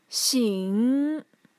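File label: "xing", Mandarin Chinese, tone 3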